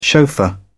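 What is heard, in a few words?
"chauffeur", British English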